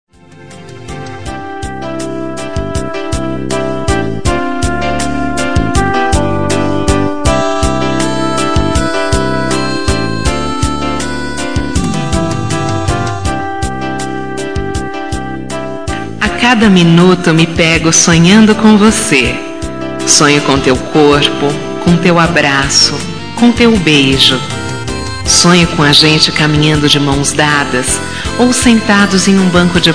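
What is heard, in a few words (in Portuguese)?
Rom espero por voce, feminino; Telemensagens; Românticas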